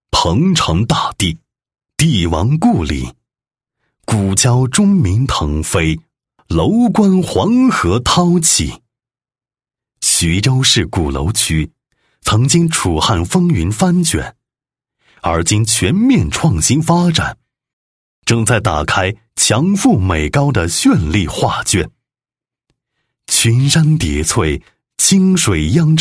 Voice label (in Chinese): 男90号-政府建设,配音案例; 徐州市鼓楼区转型发展第一方阵